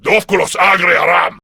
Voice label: Attack, Male; Warframe; Grineer Male B; Battle Barks